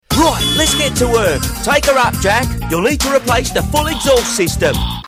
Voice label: UK Voice